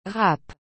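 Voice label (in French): "rap", French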